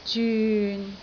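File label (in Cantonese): "zyun6", Cantonese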